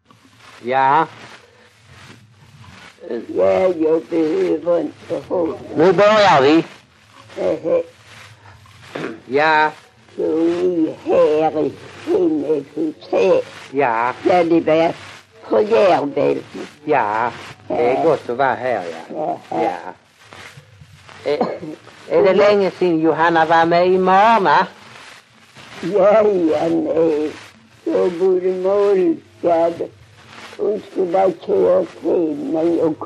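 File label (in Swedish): Strövelstorp Skåne, dialekter; Folkminnen; Svenska sagor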